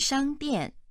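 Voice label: "shang1 dian4", Chinese, female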